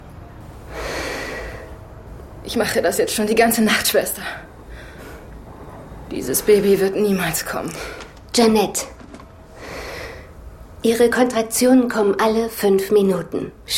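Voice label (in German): acting, Neutral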